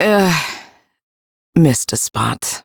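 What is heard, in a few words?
Ambient Female Sweeper, Dialog; Duviri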